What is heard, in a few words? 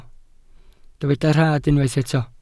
"rhad", Welsh